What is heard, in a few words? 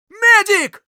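Scout medic, Russian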